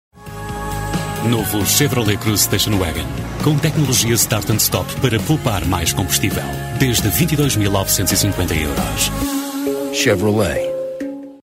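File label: Portuguese commercial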